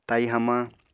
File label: "tai hamañ", Apatani